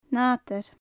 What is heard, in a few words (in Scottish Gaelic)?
"nàdar", Gaelic; Faclan Nàdair